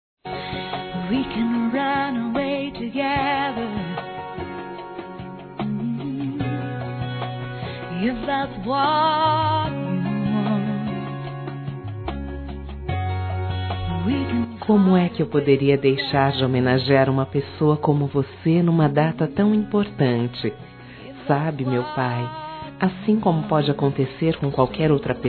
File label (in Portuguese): TIVE MOMENTOS DIFÍCEIS, Telemensagens, Dia dos Pais, fem; Telemensagens 2017